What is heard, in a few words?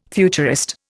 "futurist", Indian